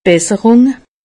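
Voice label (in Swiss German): "besserung", Alsatian; medecine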